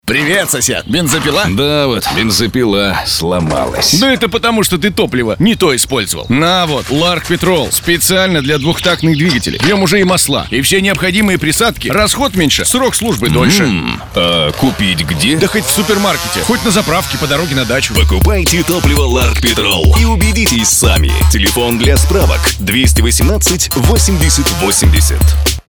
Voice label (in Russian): музыка дочитка